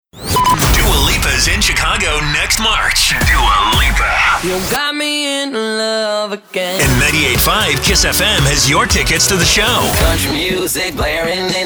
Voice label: radio

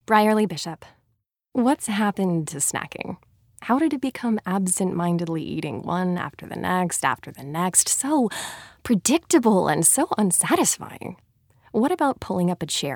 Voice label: Female Commercial

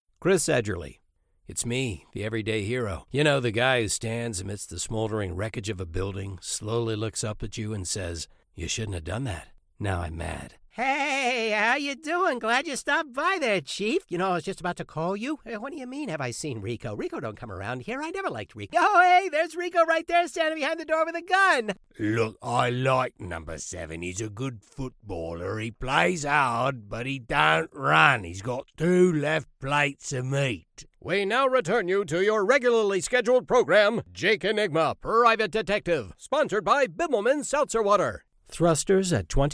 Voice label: Male Animation

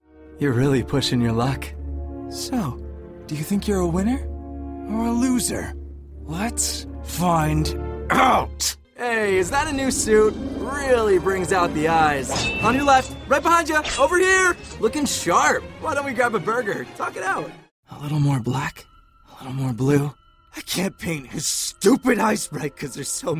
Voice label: Character

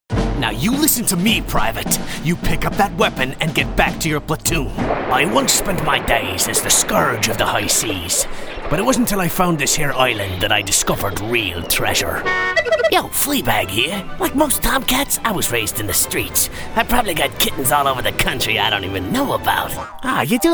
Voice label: Male Animation

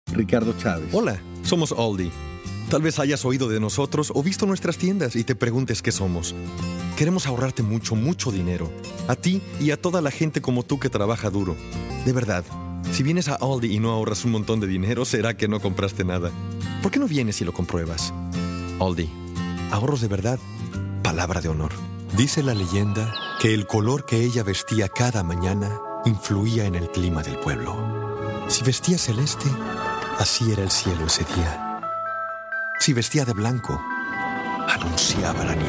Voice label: Male Commercial Spanish

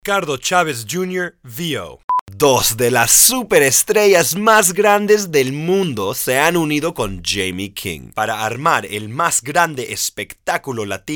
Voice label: Male Commercial Spanish

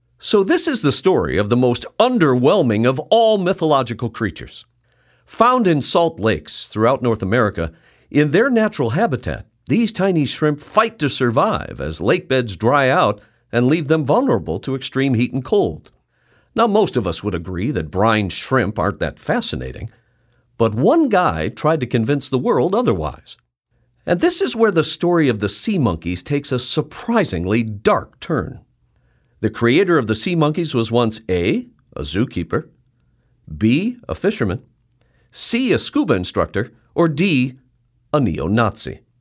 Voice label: Narration

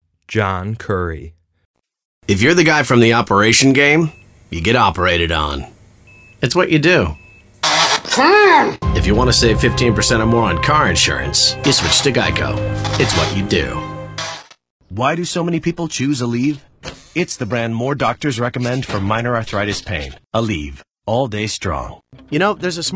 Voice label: Male Commercial

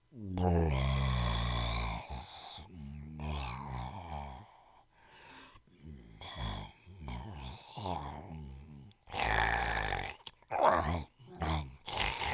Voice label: Creature

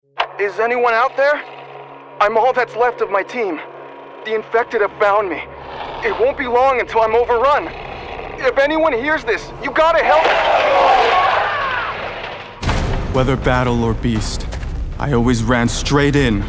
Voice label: Character

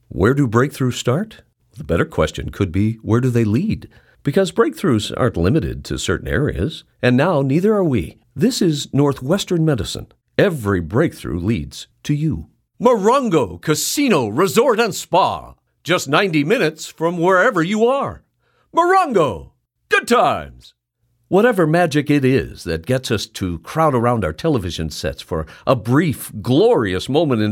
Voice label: Commercial

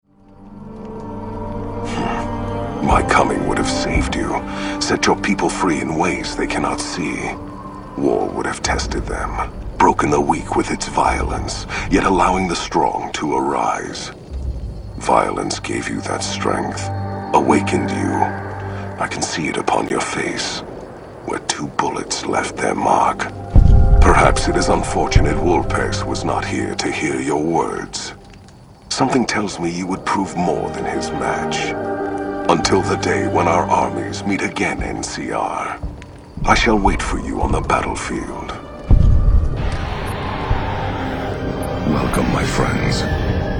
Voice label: Male Animation

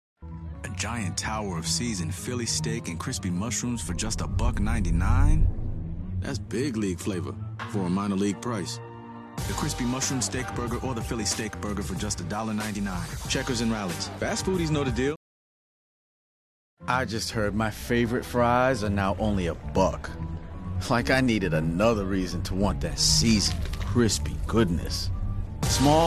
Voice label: Voiceover

